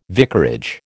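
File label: "vicarage", American English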